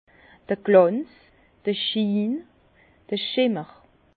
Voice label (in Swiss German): "glanz", Bas Rhin; vin; brillance